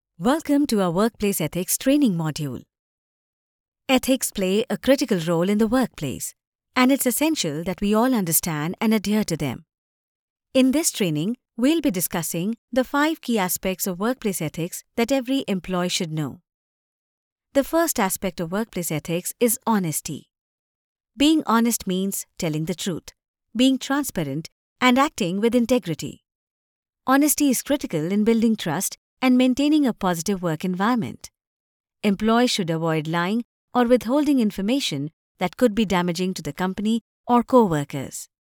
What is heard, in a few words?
English Elearning